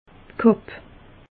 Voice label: "kapp", alsace; meteo

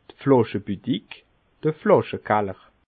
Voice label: "flaschebudig", alsace

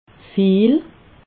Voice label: "viel", alsace

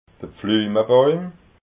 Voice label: "pflumabauim", alsace

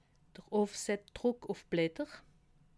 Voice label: "offsetdruck", alsace

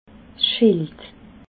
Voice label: "schild", alsace

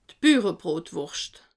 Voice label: "burebrotwurscht", alsace

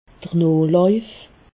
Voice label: "noochlauif", alsace

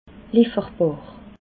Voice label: "leferbar", alsace

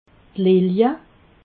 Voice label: "lilje", alsace

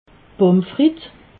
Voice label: "pomfritt", alsace

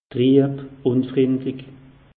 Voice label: "trieb", alsace; meteo

